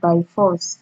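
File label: "by force", Nigerian Pidgin, female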